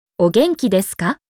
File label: Japanese How are you; Greetings